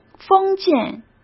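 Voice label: "封建", Mandarin Chinese; hsk; chinese-flashcards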